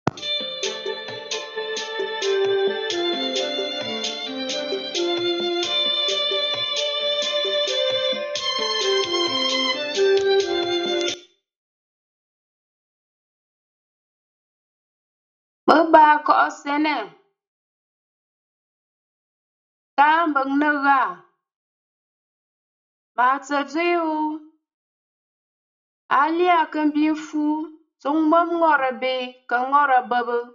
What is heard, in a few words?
wise saying, Nkwen; Taa mbə̀ŋə̀ gha